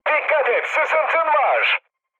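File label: Turkish damaged water, mood high, speech; War Thunder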